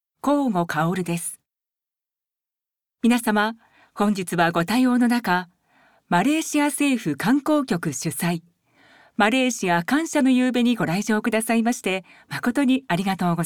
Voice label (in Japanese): 司会進行「式典」